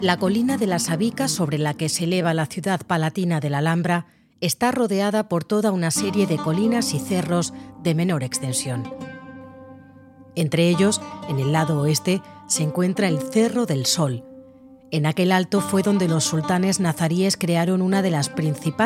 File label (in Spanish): Audioguia